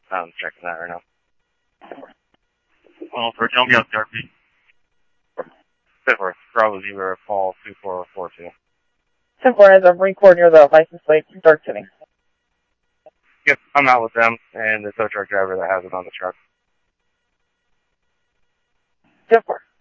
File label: Scottsdale Police, scanner audio; SCTSDLE PD - PD2; ThompsonPeakHigh